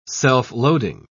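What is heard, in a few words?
"self-loading", British English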